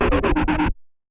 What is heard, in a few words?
Voice Evil